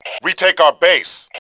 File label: retake, Male; voice; Tribes2